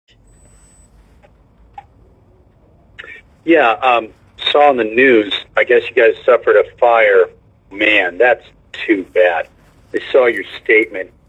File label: Unhinged Voicemail